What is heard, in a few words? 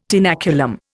"tenaculum", Indian